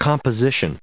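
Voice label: "composition", US English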